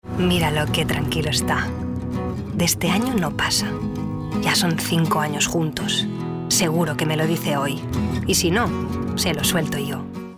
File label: female spanish loan comercial